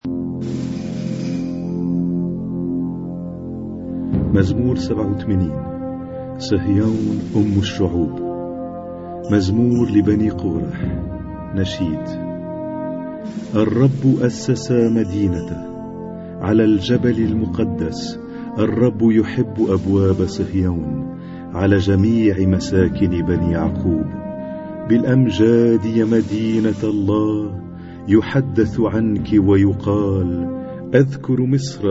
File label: Arabic Psalm Zaboor